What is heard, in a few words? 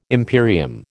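"imperium", American English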